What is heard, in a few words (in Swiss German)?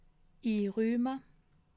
"inrumme", alsace